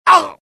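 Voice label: death, dialogue generic Italian; mohaa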